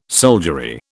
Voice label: "soldiery", British

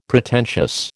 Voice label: "Pretentious", American

